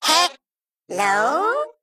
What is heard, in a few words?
Male Mech Thinking; en; AnnoyO